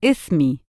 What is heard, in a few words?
"ismy", Egyptian Arabic